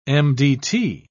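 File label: "mdt", British English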